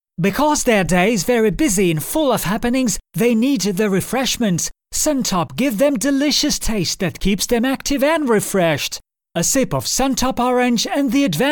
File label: Commercial Medium voice Young and funny tone